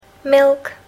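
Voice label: "milk", English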